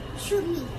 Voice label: little kid saying shoot me with a water gun; meme